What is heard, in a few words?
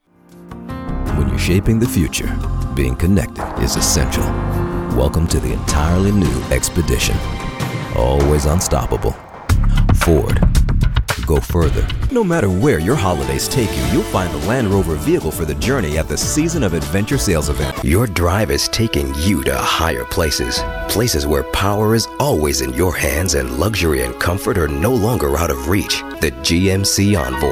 english american automotive